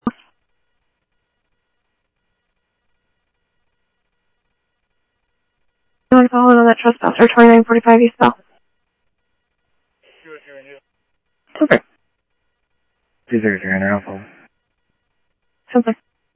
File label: Phoenix PD, scanner audio